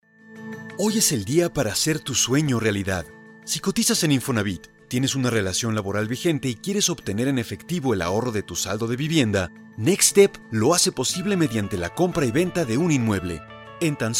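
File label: Latin American Spanish Corporate; GoLocalise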